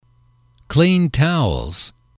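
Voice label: "clean Towels", male, english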